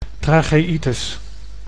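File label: "tacheitis", Dutch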